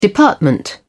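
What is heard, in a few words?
"department", British English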